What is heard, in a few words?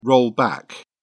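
"roll back", English, British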